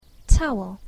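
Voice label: "towel", English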